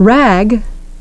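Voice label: "rag", English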